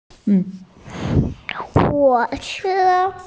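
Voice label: "train", Mandarin Chinese, female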